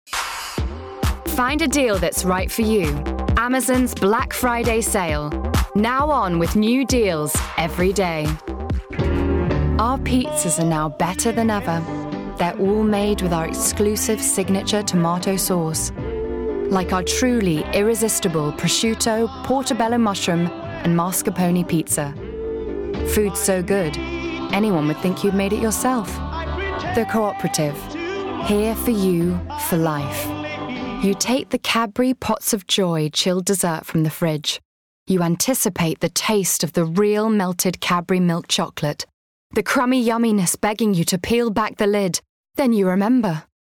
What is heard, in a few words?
Commercial